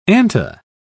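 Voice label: "anta", British English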